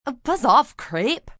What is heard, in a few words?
Buzz Off Creep, Attitude, female: 'Buzz off creep', annoyed, (human voice speaking); Talking Sound Effects